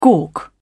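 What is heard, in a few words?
"gawk", British English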